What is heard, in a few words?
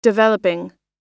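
"developing", American English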